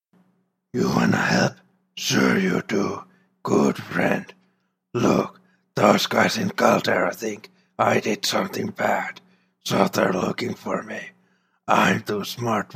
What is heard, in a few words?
orc; burgol gro-bagul; orc voice auditions